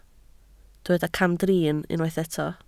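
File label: "camdrin" Welsh, spoken word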